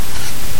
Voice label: old man normal speed